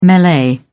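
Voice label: "melee", British English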